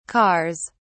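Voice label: "cars", English, British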